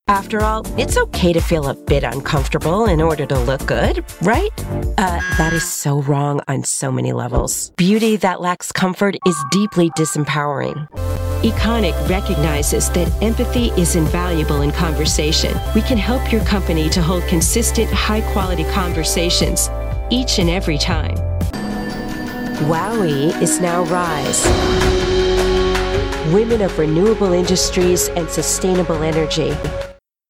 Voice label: english american narration; english american voice actors